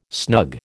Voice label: "snug", American

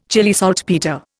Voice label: "Chile saltpetre", Indian

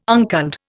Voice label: "unculled", Indian

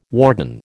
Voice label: "warden", American

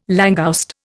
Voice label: "langouste", Indian

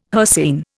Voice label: "hircine", Indian

